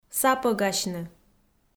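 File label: "сапӧгасьны", Komi, female; kpv; audio dict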